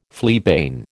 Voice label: "fleabane", American

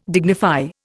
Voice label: "dignify", Indian

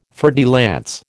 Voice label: "fer de lance", American

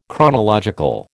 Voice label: "chronological", American

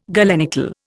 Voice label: "galenical", Indian

